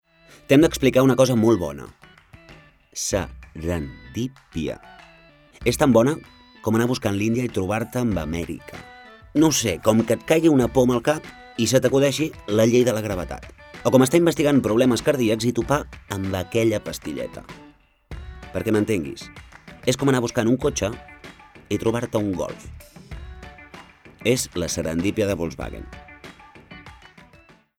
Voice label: male catalan car comercial; ideasonora